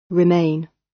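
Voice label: "remain" British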